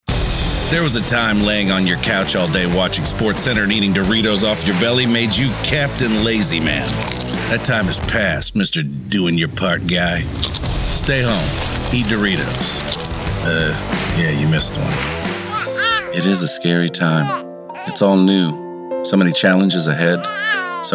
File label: Commercial VO